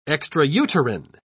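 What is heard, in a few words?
"extrauterine", British English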